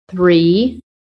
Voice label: "three", phonetic